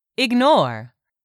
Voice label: "ignore", English; 3000 words